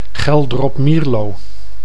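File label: "Geldrop-Mierlo", Dutch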